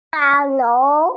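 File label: "building", Mandarin, hearing-impaired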